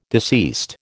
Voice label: "deceased", American